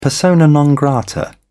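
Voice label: "persona non grata", British English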